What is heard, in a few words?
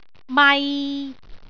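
"mai3", Cantonese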